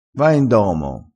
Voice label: "n", crespinese, vocabolario dialettale

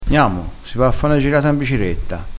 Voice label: "biciretta", vocabolario dialettale crespinese

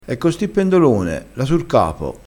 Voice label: "peretta", crespinese

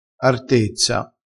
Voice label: "artezza", crespinese

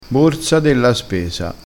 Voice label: "borsa della spesa", crespinese

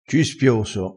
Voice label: "cispioso", crespinese

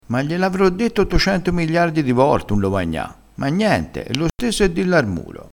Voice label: "migliardo", crespinese

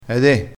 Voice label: "e de", crespinese

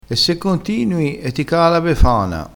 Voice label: "caa la befana", crespinese

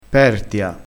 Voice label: "pertia", dialettale crespinese

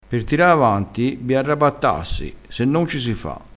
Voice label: "arrabattassi", crespinese

